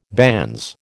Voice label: "banns", American

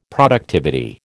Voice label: "productivity", American

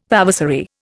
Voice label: "vavasory", Indian

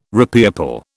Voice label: "repeople", British

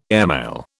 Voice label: "airmail", British

